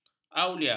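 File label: "aulia", Malay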